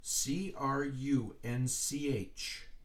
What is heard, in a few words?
"Crunch", spelled out; letters being spelled out